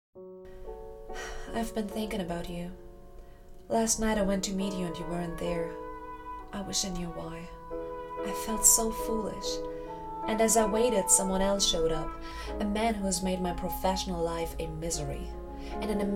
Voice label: ENGLISH CHARACTER